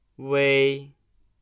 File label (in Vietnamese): "ui", tieng trung; nguyên âm